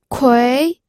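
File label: "kui", second tone, pinyin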